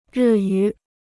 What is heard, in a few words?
"日语", Chinese